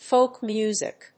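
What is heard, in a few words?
"folk music", English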